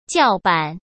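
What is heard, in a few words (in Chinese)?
"叫板", Mandarin Chinese; chinese flashcards; hsk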